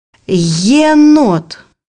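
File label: "raccoon", Russian; bukvar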